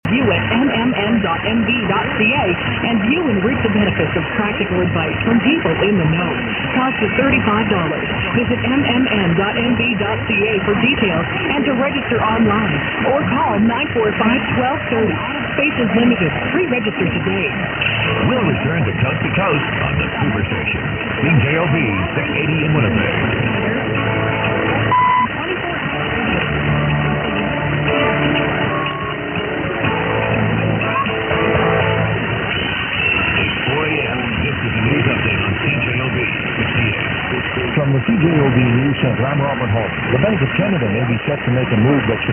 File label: 680, mw dxing; cjob; winnipeg